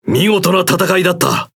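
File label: Japanese Speech Quick Commands Good Game; World Of Warships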